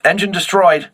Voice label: voice message engine destroyed, crew ships english